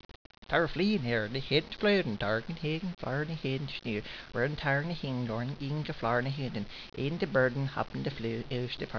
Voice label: German Voice; German Talk! (Actually... Not really)